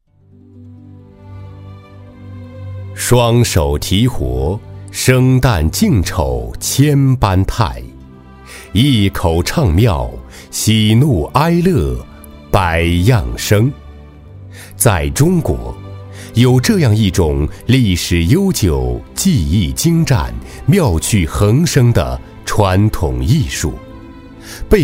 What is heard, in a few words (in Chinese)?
男 纪录片 国粹文化 木偶戏剧